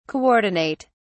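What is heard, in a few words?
"coordinate", British English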